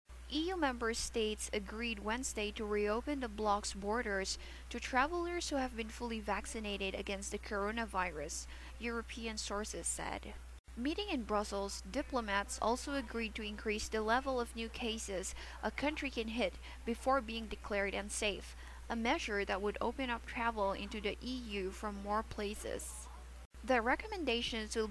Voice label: English news